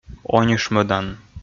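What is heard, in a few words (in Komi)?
"онишмӧдан", Komi, male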